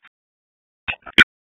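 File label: Police District Tactical, p25; Raleigh; Northeast; wake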